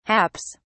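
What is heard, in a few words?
"haps", British English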